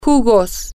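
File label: juices, Spanish, female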